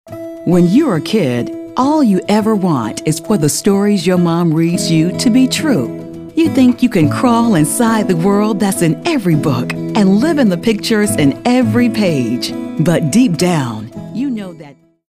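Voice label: storyteller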